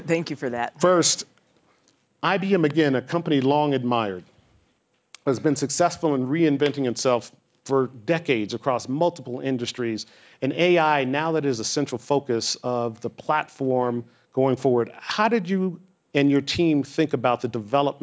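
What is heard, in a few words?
US English broadband, speech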